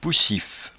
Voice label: "poussif", patois jura

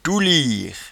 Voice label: "touliere", patois jura

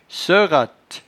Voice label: "soeuratte", patois jura

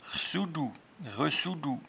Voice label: "rsoudou", patois jura

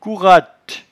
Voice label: "couratte", patois jura

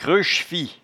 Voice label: "creuchfi", patois jura

